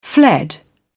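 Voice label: "fled", British English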